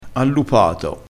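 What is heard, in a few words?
"allupato", Italian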